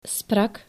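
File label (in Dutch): "sprak", Dutch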